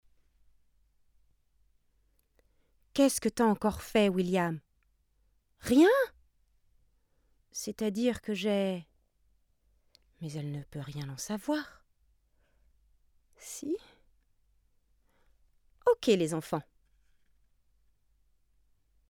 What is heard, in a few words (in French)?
robots fr; marmite